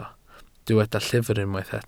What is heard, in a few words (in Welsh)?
"llyfr" Welsh